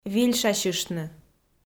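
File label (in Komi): "вильшасьыштны", Komi, female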